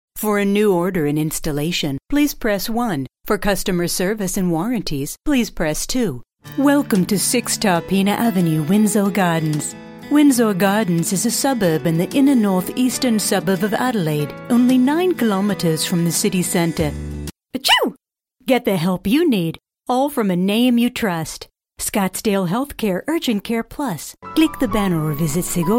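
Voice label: English US female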